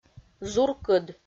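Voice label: "зуркыд", Komi-Zyrian, female; audio dict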